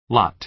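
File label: "lot", American English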